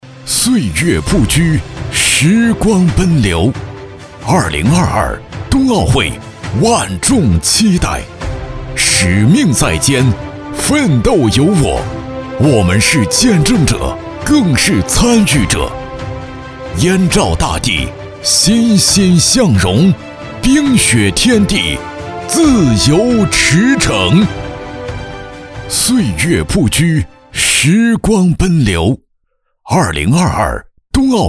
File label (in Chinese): 男 宣传片 冬奥会